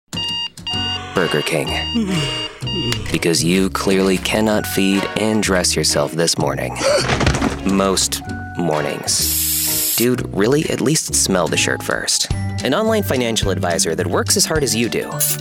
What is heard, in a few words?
Voice Actor; BigMouth Voices